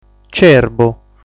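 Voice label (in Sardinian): "Cerbu", Sardinian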